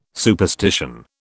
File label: "superstition", British